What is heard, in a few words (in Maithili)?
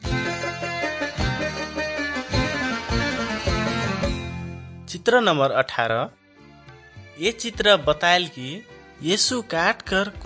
good news maithili, nt drama